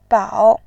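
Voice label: "bao", Mandarin Chinese, third tone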